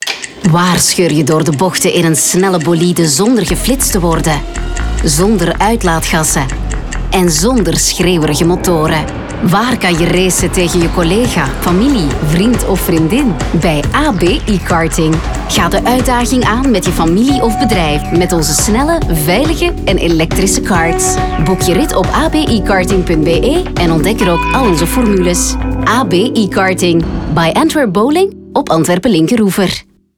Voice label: radiospot ekarting